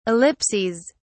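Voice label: "ellipses", British English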